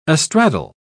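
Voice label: "astraddle", British English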